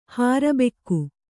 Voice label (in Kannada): "ಹರ್ಬುಗೆ", Kannada